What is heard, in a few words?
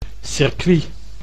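"circuit", Dutch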